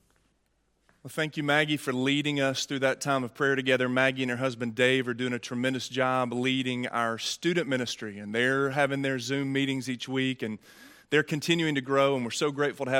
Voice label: Sermon; Avenue South